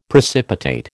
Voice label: "precipitate", American